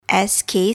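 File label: "sk", English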